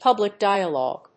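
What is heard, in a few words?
"public dialogue", English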